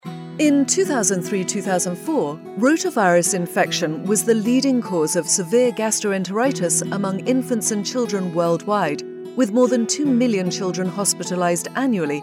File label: Rotavirus, british voice; Merck